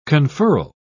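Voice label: "conferral", British English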